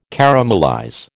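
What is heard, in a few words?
"caramelize", American English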